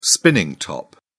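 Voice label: "spinning top", British English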